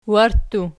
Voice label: "warttu", Archi